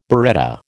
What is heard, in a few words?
"biretta", American